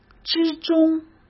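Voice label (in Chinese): "之中", Mandarin Chinese; chinese-flashcards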